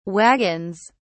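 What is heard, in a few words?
"wagons", British English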